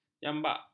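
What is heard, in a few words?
"jambak", Malay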